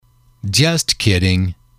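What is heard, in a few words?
"just kidding", English, male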